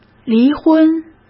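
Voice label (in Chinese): "离婚", Mandarin Chinese; hsk; chinese-flashcards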